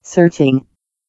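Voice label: "searching", English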